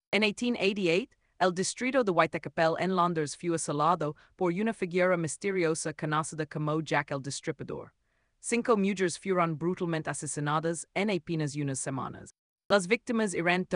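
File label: voiceover, Spanish; Who was Jack the Ripper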